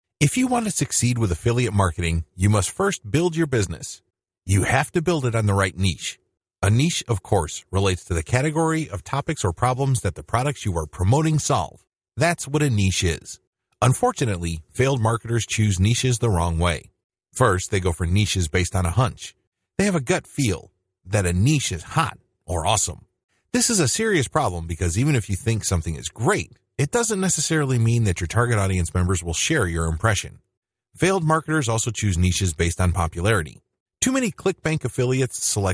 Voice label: Sales Video Voice Over; clickbank marketing secrets